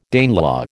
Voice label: "Danelaw", American

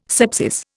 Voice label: "scepsis", Indian

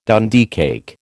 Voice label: "Dundee cake", American

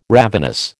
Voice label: "ravenous", American

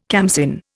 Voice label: "khamsin", Indian

